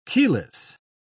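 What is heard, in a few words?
"keyless", British English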